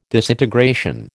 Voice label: "disintegration", American

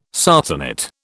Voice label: "sarcenet", British

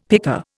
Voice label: "picker", Indian; Dictionary

